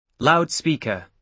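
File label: "loudspeaker", British English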